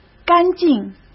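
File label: "干净", Mandarin Chinese; chinese-flashcards; hsk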